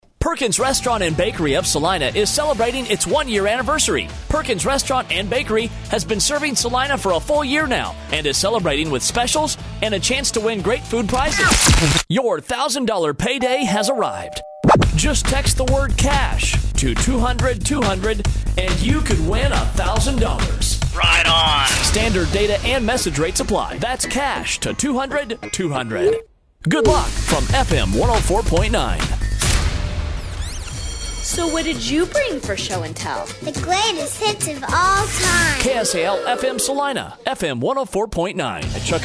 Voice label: USA